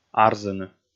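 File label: "арзыны", Komi, male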